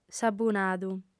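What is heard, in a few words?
"sabunadu", female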